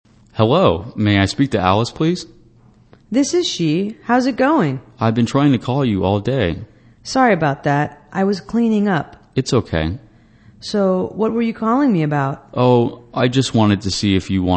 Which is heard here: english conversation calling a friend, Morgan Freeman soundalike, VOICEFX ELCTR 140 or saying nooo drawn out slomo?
english conversation calling a friend